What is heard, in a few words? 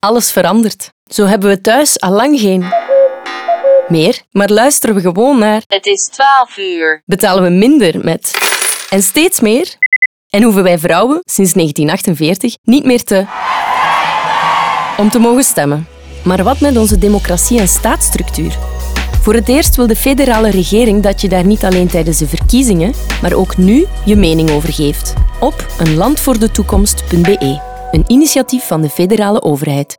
Radio Spot